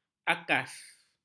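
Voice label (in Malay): "akas", Malay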